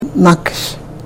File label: "nakish", karuk